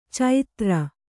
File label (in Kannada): "ಚೈತ್ರ", Kannada